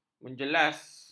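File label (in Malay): "menjelas", Malay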